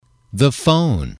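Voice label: "the phone", English, male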